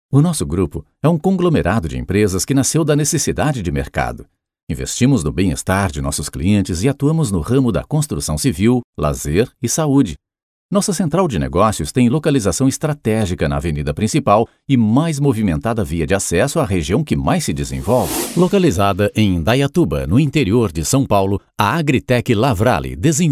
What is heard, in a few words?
portuguese brazilian corporate videos; locutor profissional no Brasil